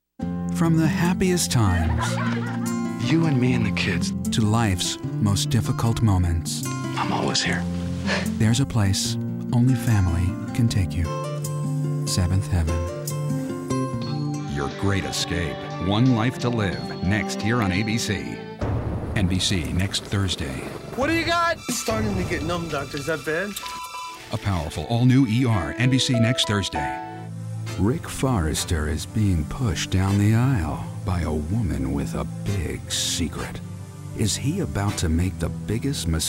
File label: Emotional Promos; promos trailers